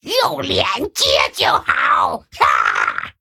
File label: Male Kobold Attack